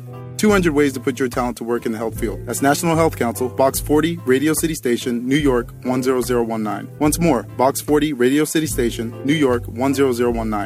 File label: psa with music bed